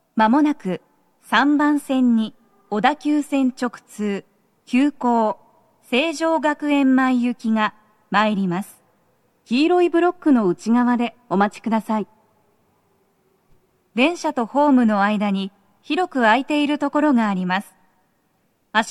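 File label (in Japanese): 接近放送 女声, 急行 成城学園前行; 放送は「急行 成城学園前行」です。; tokyometro chiyodaline hibiya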